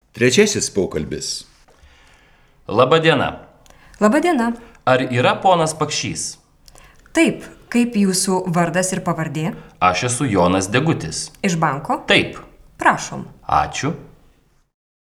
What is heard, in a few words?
Dialog